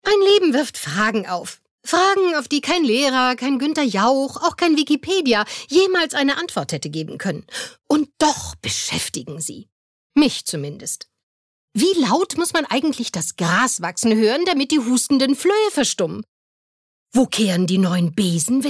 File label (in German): Schauspiel Witzig